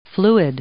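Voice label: "fluid", English; noun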